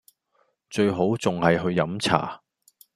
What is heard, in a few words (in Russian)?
Голоса, Гонконгский